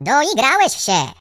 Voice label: I'll get you, voices, pl; hedgewars; Default pl